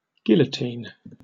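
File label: "guillotine" British English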